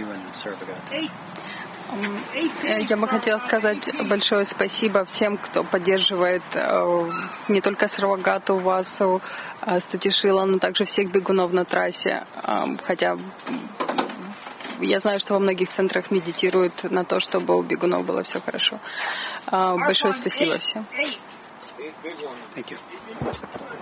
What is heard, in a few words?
russian